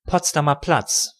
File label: "Potsdamer Platz", De; Berlin